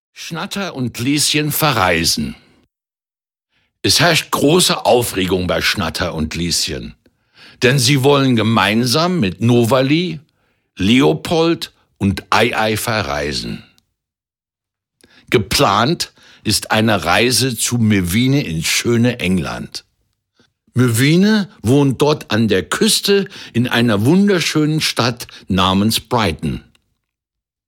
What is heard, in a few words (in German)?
hoerbuch; schnatter und lieschen verreisen